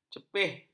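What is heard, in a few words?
"cepih", Malay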